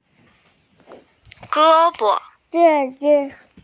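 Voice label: "arm", Mandarin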